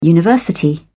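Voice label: "university", English, British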